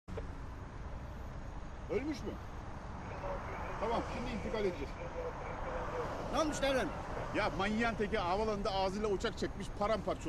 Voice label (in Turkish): polis sahnesi kufurlu; kolpacino